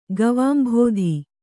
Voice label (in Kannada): "ಗವಾಂಭೋಧಿ", Kannada